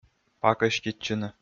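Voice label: "пакӧститчыны", Komi, male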